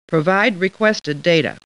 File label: Computer Voice; Star Trek